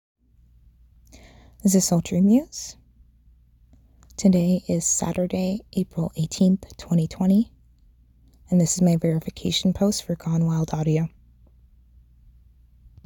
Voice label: F, Verification